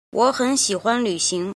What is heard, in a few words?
"jin", Chinese